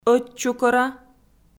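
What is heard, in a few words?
"ӧтчукӧра", Komi, female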